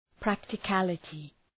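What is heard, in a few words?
"practicality", English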